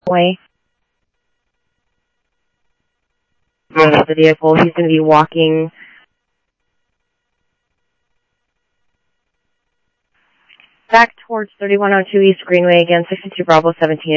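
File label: Phoenix police department, scanner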